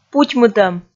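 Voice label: "путьмытӧм", Komi, female; kpv audio dict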